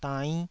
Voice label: "dainn5", Taiwanese syllable